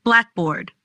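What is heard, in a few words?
"Blackboard", pronunciation; school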